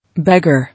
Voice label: "Beggar", English